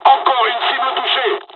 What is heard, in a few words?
japanese first wave, dialogs french; War Thunder